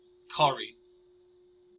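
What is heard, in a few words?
"curry", US English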